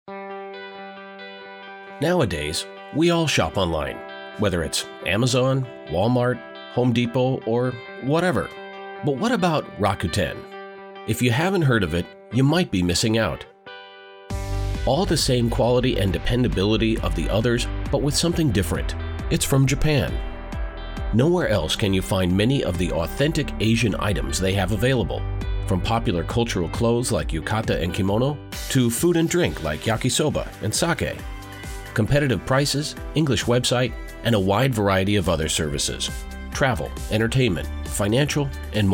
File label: Electronics Retail Commercial